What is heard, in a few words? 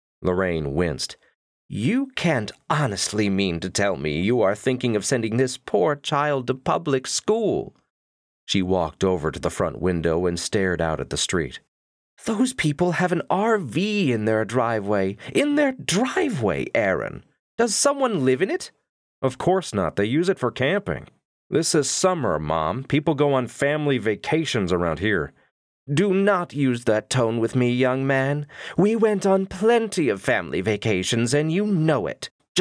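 Narration Sample Male Female Voices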